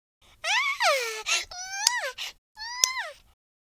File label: happy, Southern Belle; speech